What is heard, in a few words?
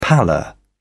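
"pallor", British English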